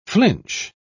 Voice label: "flinch", pronounce

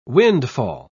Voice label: "windfall", pronounce

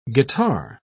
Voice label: "guitar", pronounce

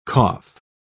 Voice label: "cough", pronounce

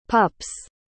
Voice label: "pups", pronounce

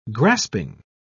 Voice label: "grasping", pronounce

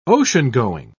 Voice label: "oceangoing", pronounce

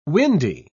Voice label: "windy", pronounce

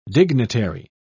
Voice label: "dignitary", pronounce

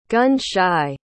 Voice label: "gun-shy", pronounce

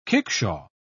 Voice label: "kickshaw", pronounce

